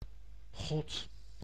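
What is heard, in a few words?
"God", Dutch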